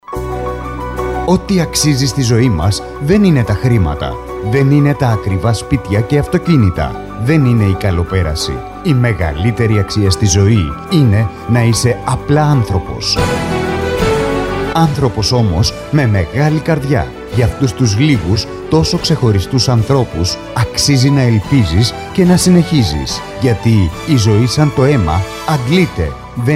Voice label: radio spot; SOS KIDS ΛΑΜΨΗ Αιμοδοσια